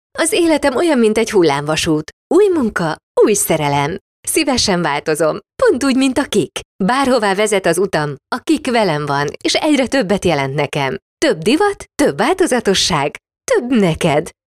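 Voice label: Hungarian, female, commercial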